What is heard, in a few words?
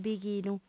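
"bighinu", lingua sarda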